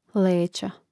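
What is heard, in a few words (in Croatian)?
"leca", Croatian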